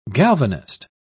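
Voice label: "galvanist", British English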